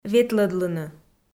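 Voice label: "ветлӧдлыны", Komi, female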